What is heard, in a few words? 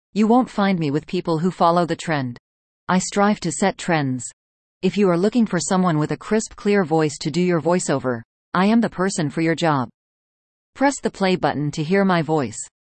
lady, audiobooks